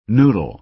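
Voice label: "noodle", American English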